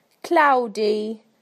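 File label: "cloudy", British English; PictureDictionary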